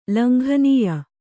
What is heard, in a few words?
"ಲಂಘನೀಯ", Kannada